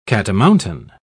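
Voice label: "catamountain", British English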